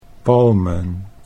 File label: "palmen", Dutch; 'palms'